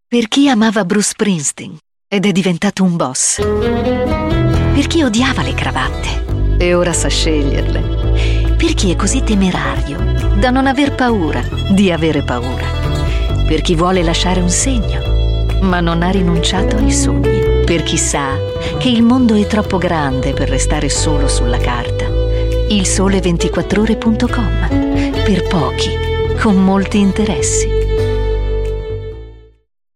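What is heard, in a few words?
female Italian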